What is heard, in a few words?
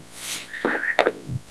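Voice Acting, Spanish, male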